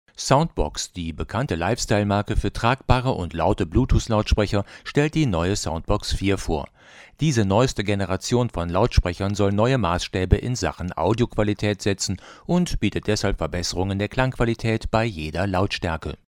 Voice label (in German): Austrian Audio MiCreator Studio 7cm Stativ High